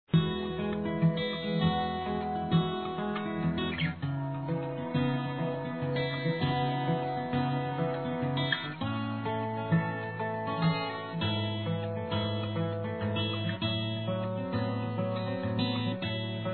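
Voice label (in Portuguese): DOCE AMOR, Feminino; Telemensagens; Românticas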